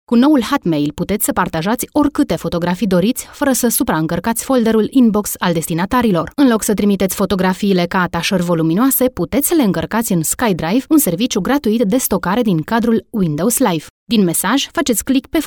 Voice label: romanian voiceover locutora rumana